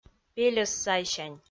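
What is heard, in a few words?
"пельӧс сайсянь", Komi, female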